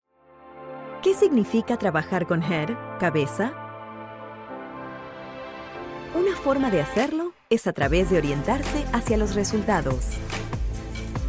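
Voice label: Spanish Latin American, female, corporate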